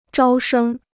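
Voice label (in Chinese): "招生" Chinese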